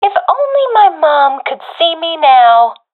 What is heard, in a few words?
last unit alive, professional fem; vo; agents; Source Model Packs; Counter Strike Model Pack; CS2